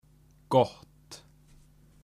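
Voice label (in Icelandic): "gott", Icelandic; matarhefti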